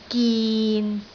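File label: "gin6", Cantonese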